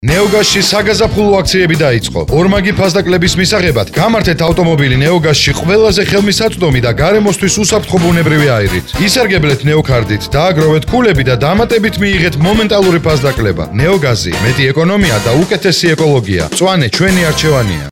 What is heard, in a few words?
Georgian male